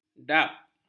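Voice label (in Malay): "dap", Malay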